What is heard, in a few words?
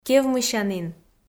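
"кевмысянін", Komi, female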